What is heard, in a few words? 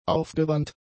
"aufgewandt", German; adjectives